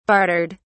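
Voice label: "bartered", British English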